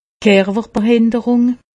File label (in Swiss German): "kerwerbehinderung", alsace; medecine